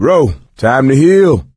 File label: suggest health, coach, survivor voice; video game; source engine; left 4 dead 2